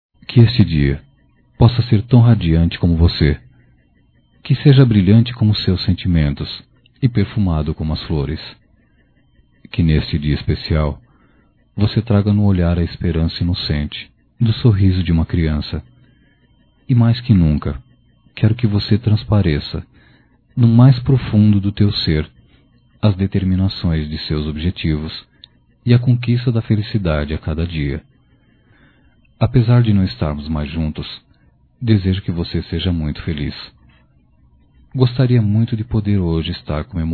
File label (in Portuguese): Aniversário de Ex Namorado Masculino, Só Voz; Telemensagens; Telemensagens 2017